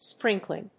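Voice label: "sprinkling", English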